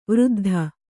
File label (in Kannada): "ವೃದ್ಧೆ", Kannada